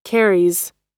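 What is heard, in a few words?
"carries", US English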